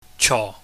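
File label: "cho", Cantonese, low falling tone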